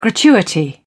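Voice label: "gratuity", British English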